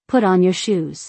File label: "Put on your shoes", english; Dress and Appearance